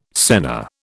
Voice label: "senna", British

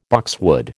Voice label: "boxwood", American

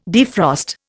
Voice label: "defrost", Indian

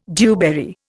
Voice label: "dewberry", Indian

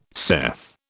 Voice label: "saith", British

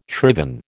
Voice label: "shriven", American

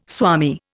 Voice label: "swami", Indian

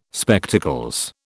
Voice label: "Spectacles", British

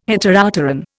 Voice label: "Heteropteran", Indian

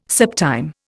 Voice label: "septime", Indian

